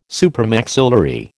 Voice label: "supramaxillary", American